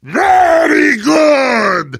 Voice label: positive vocalization; very good; tf2 heavy

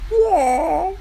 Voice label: crash sad whoa

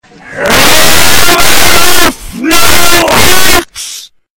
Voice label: scotland forever backwards

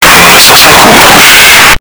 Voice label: loud

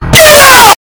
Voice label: get out extremly loud

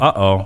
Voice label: uh oh; vanoss

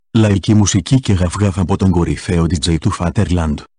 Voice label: male

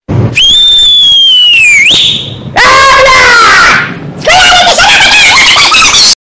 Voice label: angry chimpunk

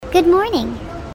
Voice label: evil good morning

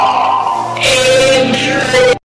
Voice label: earrape

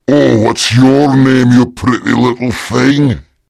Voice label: idle, scottish

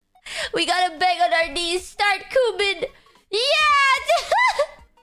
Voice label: begging

